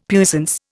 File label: "puissance", Indian; Dictionary